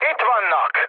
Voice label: enemy detected, speech hun; War Thunder